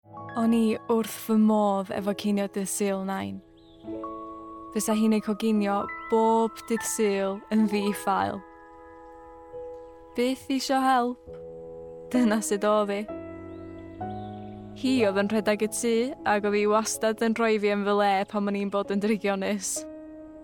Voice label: Welsh